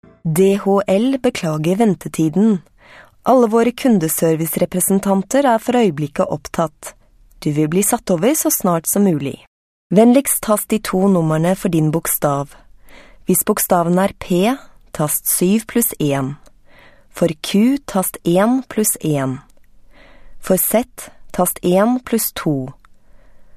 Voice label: Norwegian, F, IVR